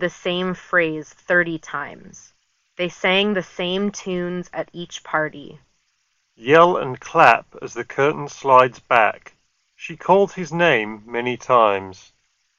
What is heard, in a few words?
ZE8000 Ideal microphone sample